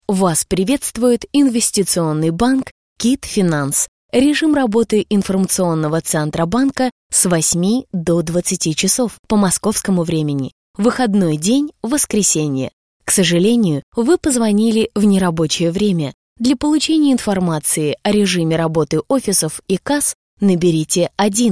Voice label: golosovoe menu; KIT Finans; ivrplus